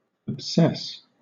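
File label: "obsess", British English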